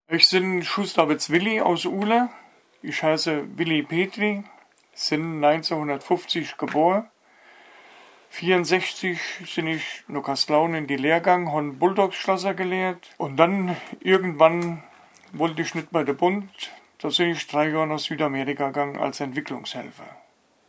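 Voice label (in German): stellt sich vor, Hunsrück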